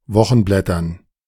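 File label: "Wochenblättern", German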